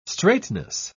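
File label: "straightness", British English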